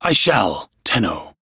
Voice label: Crew Accept, Male, en; Railjack Crew; Arbiters Hexis